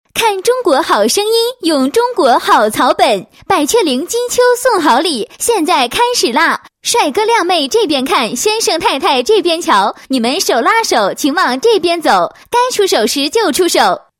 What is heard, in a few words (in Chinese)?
女 叫卖广告 老品牌老字号叫卖; 百雀羚气韵